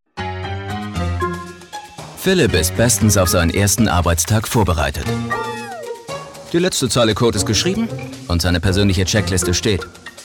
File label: Voiceover